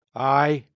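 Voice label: "aye", Scots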